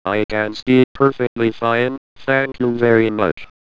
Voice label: amiga speak